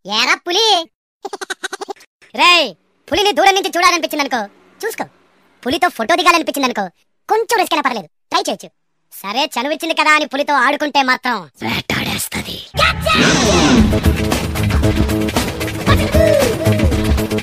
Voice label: Funniest Voice

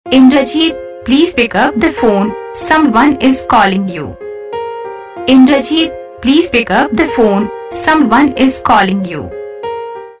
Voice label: please pickup the phone saying, Ringtones; Your Name Ringtones A To Z Names

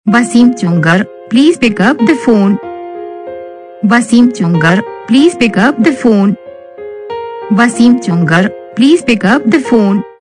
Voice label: pick up phone saying, Ringtones

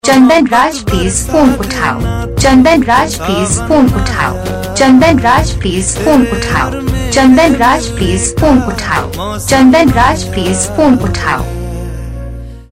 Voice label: chandan raj please phone uthao saying; Your Name Ringtones A To Z Names

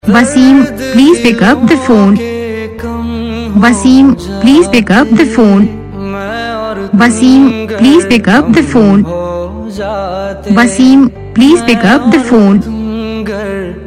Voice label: pick up phone saying, Ringtones

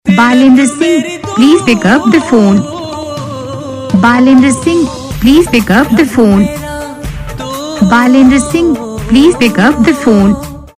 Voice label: pick up phone saying, Ringtones

